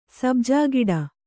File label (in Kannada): "ಸಬ್ಜಾಗಿಡ", kannada